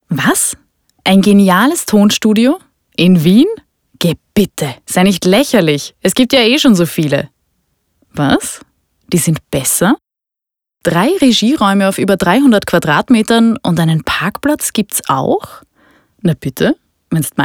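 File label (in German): Mit Lächeln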